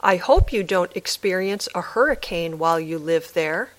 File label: Dictation